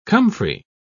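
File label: "comfrey", British English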